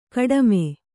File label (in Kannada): "ಗುಡುಮಿ", Kannada